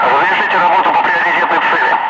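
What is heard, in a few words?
Russian speech, Ka-50 Wingman; DCS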